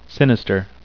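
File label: "sinister", English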